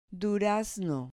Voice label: "durazno", Spanish